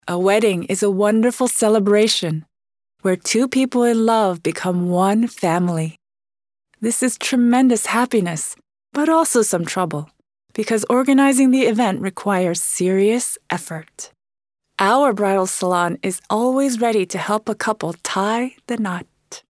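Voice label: English female Inspiration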